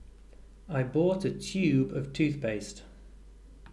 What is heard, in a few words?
"tube", English